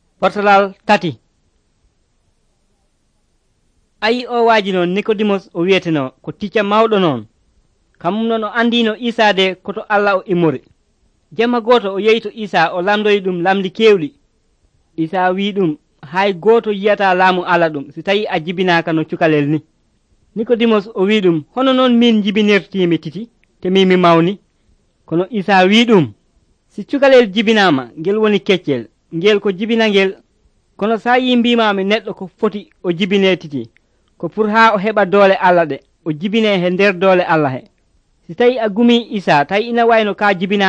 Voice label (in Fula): pulaar fulacunda, drama; pulaar bible society of senegal nt